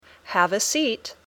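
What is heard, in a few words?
"Have a seat", English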